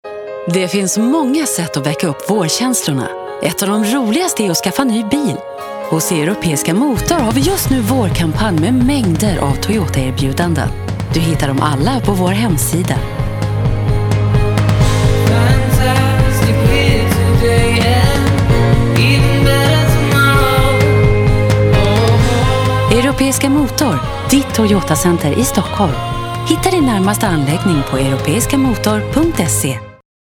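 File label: Swedish commercial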